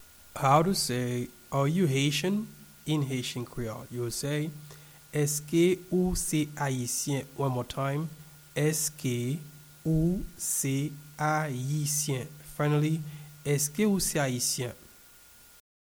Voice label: Are you Haitian in Haitian Creole Eske ou se ayisyen pronunciation